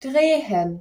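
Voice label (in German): "drehen", German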